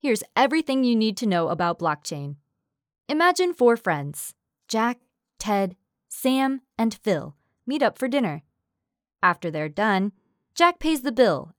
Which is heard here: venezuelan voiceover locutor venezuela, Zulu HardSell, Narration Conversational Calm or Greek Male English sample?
Narration Conversational Calm